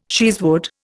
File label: "cheesewood", Indian